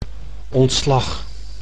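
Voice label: "ontslag", Dutch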